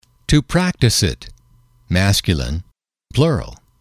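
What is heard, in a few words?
"to practice it", English, male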